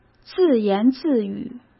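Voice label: "自言自语", Mandarin Chinese; chinese-flashcards; hsk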